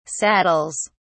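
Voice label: "saddles", English, British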